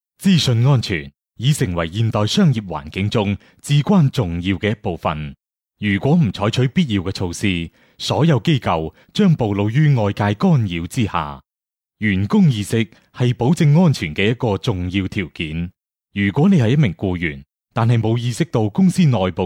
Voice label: Cantonese Male, Chinese voice over; Foreign language voice over

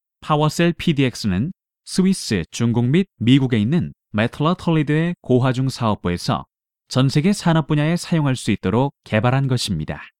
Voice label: Korean male; Foreign language voice over

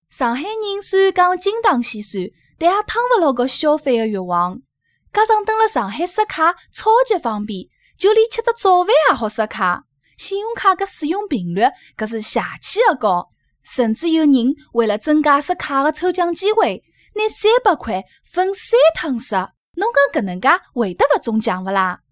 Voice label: Shanghai female; Foreign language voice over

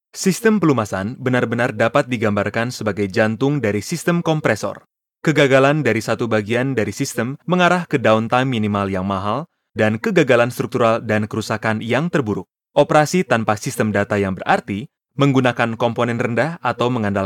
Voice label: Indonesian male, voice over; Foreign language voice over

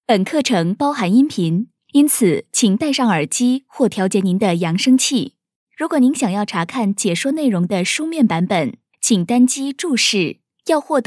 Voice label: Mandarin female, Chinese voice over; Foreign language voice over

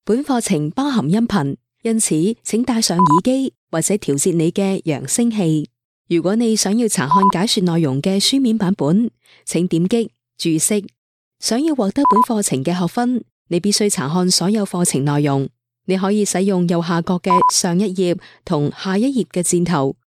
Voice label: Cantonese female; Foreign language voice over

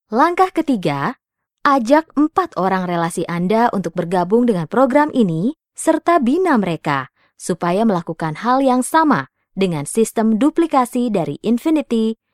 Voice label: Indonesian female; Foreign language voice over

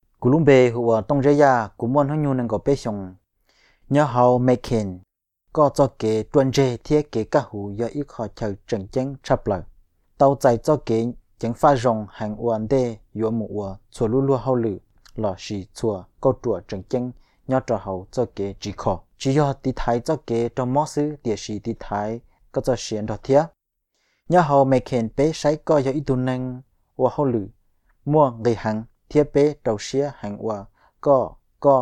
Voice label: Hmong male; Foreign language voice over

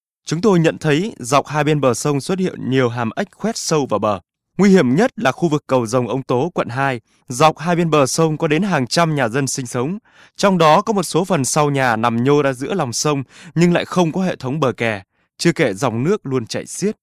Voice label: Vietnamese male; Foreign language voice over

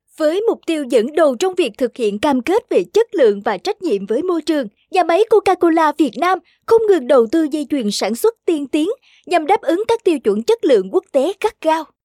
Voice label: Vietnamese female; Foreign language voice over

